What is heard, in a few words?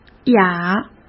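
"哑", Mandarin Chinese; chinese-flashcards; hsk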